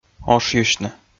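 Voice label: "ошйысьны", Komi, male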